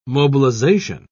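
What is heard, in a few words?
"mobilisation", British English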